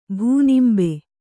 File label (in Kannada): "ಭೂನಿಂಬೆ", Kannada